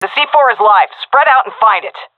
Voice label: hear bomb, seal fem; vo; Counter Strike Model Pack; CS:GO